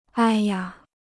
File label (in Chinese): "哎呀", Chinese